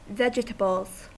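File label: "vegetables", US English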